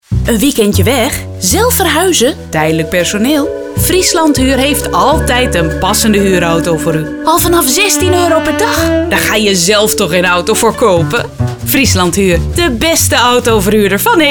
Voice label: Radiospot Nederlands; Friesland; Huur